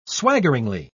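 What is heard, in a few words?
"swaggeringly", British English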